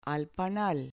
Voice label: "panal" Spanish